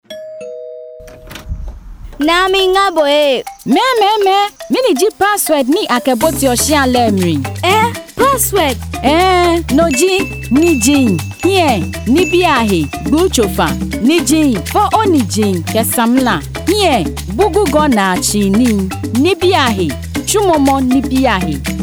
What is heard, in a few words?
Radio Working women GA, voice over